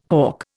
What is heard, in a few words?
"hough", Indian